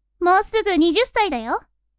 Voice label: woman